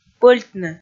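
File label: "пӧльтны", Komi, female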